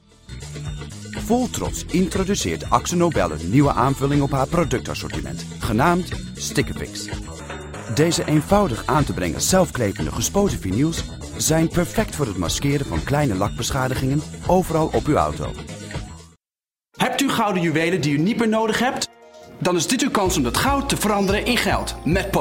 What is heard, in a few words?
male dutch